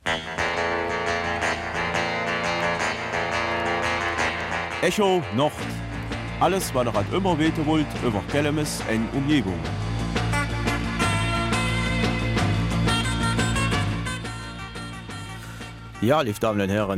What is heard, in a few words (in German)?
Kelmiser Mundart; 40 Jahre FC Tanja in Kelmis